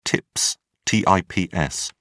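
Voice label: "tips", British English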